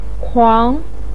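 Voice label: "kuang", Mandarin pinyin, second tone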